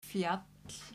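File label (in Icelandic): "fjall", Icelandic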